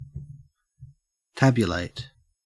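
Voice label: "tabulate", Australian English; pronunciation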